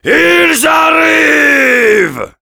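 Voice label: Heavy incoming, French; IILS ARRIIIVENT!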